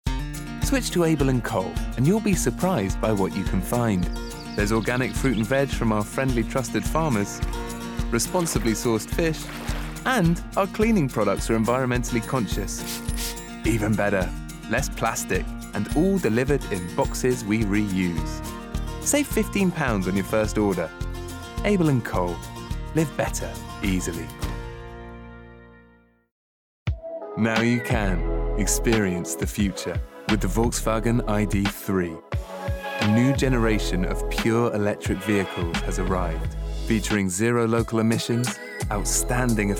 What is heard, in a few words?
Commercial